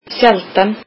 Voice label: icelandic rarely